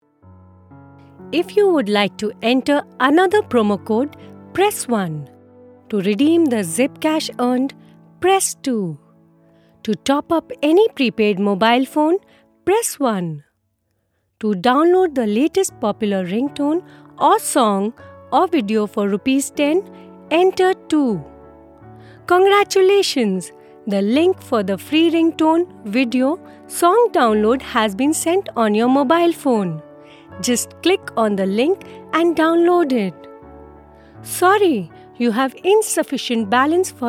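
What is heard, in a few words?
IVR English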